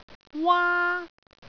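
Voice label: "waa1", Cantonese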